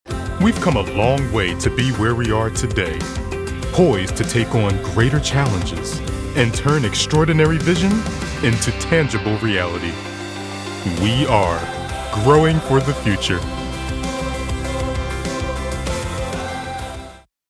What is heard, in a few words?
narration voice over growing for the future, Wonder, Imagination